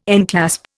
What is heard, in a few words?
"enclasp", Indian